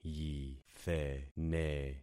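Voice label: "yithene", concatenated syllables; Affixing experiment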